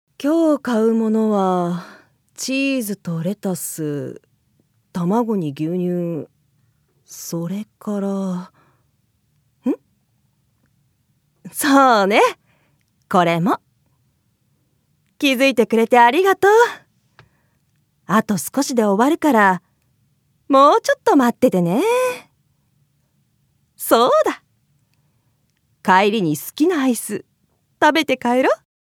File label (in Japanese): 女性4